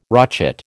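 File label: "rochet", American English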